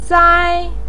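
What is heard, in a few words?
"sai", Mandarin pinyin, tone 1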